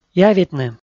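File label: "явитны", Komi-Zyrian, male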